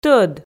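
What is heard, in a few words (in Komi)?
"тӧд", Komi, female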